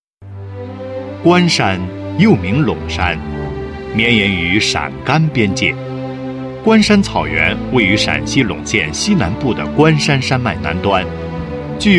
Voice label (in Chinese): 男 专题 宣传介绍 大气, 国语男声配音; 关山